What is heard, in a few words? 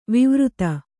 "ವೈವರ್ತ", Kannada